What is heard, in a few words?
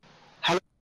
bearded hello